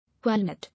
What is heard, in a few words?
"Walnut", English